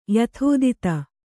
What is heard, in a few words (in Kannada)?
"ಯಥಾತಥ", kannada